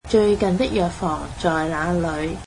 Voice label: cantonese Where is the closest pharmacy